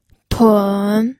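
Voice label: "tun3", Mandarin Chinese, pinyin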